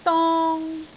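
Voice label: "dong1", Cantonese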